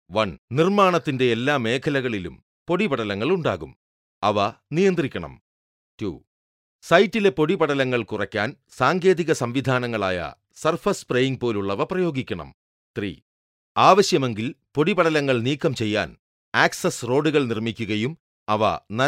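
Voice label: Malayalam male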